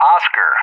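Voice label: "Oscar", English; JTAC; Alphabet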